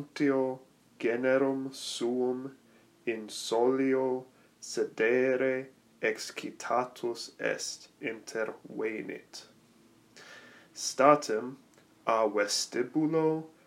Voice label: Dramatic Interp Male; Dramatic Interp 2 Male Pronunciation Guide 2018/19